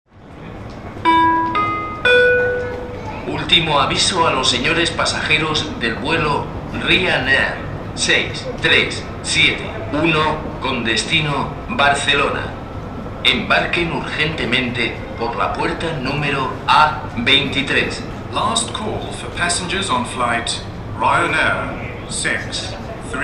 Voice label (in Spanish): ultimo avisto, Flughafen Ansagen